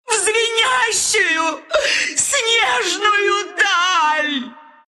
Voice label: death ru